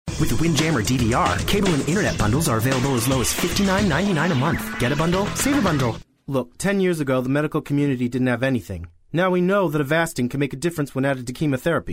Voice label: male voice